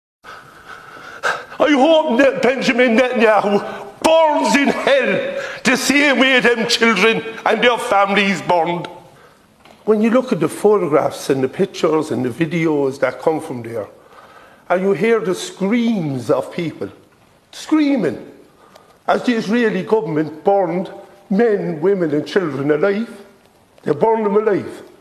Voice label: Speech in Oireachtas (Irish parliament); Gaza